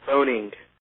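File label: "phoning", American English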